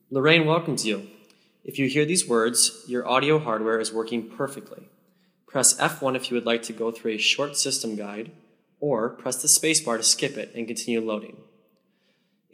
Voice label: reverb, greeting